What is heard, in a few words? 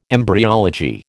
"embryology", American